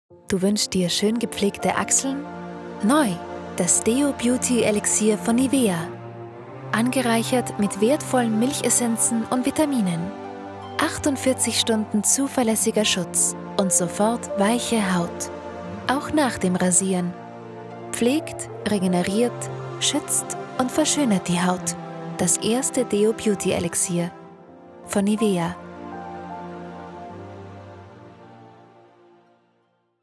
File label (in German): Werbung zart weiblich